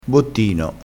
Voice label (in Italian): "bottino", vocabolario dialettale